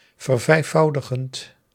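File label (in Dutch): "vervijfvoudigend", Dutch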